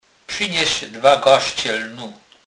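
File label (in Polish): "garzc", Kurpie dialect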